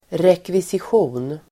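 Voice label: "rekvisition", Swedish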